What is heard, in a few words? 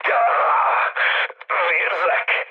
damaged pilot, mood low, speech hun; War Thunder